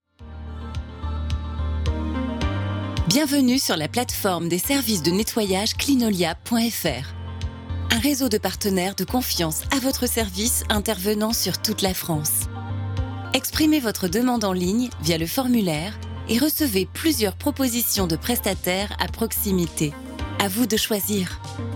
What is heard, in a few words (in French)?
message vocal